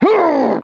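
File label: "huh", vocal sample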